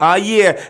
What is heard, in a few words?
"ah yeah", voice